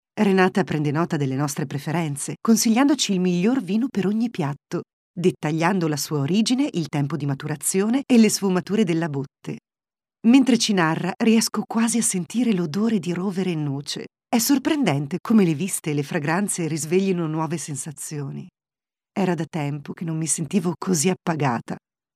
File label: locutora italiana italian voiceover; Grabación turística